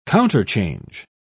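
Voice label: "counterchange", British English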